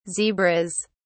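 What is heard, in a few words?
"zebras", British English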